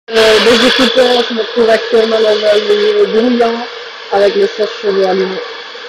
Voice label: Xiaomi Redmi Buds 3 Audio